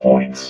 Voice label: "points", robot